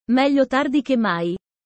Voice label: italian sayings about life